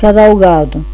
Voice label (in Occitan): "cadaugada", Gascon